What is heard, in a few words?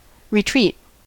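"retreat", US English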